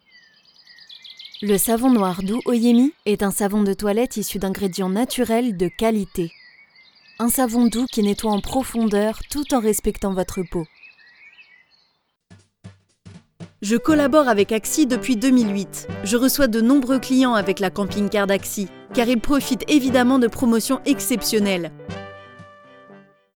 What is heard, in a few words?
FRENCH